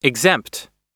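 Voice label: "exempt", US English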